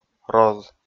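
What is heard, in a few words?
"роз", Komi, male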